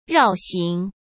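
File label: "绕行", Mandarin Chinese; hsk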